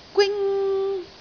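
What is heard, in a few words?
"gwing1", Cantonese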